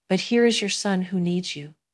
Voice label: Voice Acting es